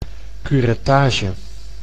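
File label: "curettage", Dutch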